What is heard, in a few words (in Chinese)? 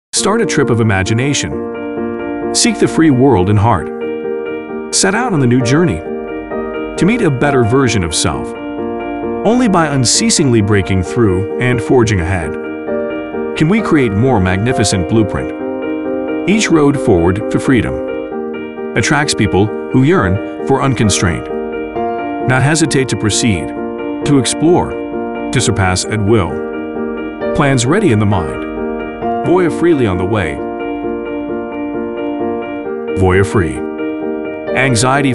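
外籍男英_外语_美式英语_广告高端_大气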